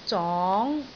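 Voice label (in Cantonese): "zong2", Cantonese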